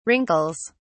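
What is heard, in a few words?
"wrinkles", English, British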